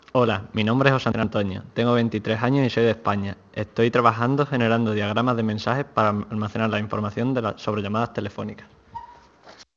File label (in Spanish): SPANISH